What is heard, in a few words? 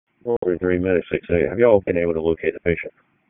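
Public Safety Tactical, p25; wake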